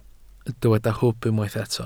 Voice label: "hwb", Welsh